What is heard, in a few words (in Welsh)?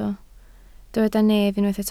"nef", Welsh